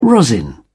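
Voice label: "rosin", British English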